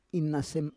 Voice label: "inasem", Roon